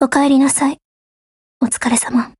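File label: Japanese, Voice; GF Alarm; Magal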